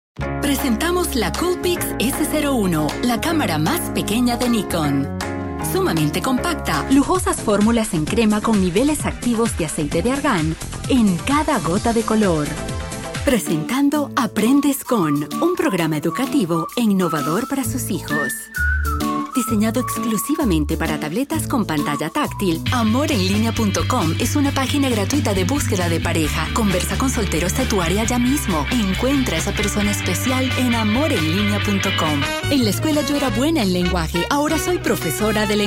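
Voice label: Spanish, commercial, voice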